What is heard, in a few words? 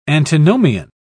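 "antinomian", British English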